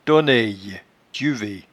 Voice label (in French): "toneye", patois jura